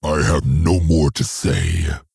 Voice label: I Have no More To Say, Maghar Orc Military Guard, M